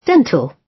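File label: "dental", British English; Time, history; Thời gian, lịch sử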